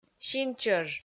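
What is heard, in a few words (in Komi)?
"синчӧж", Komi, female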